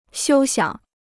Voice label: "休想", Chinese